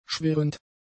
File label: "schwirrend", German; adjectives